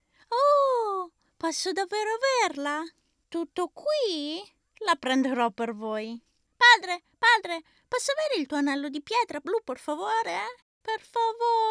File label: italian f character; voice demos